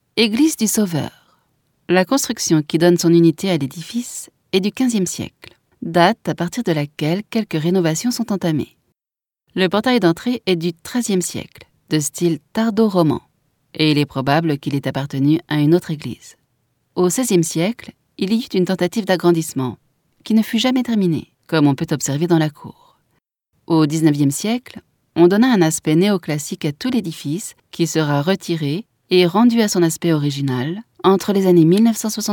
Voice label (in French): audioguia, frances; audioguia baeza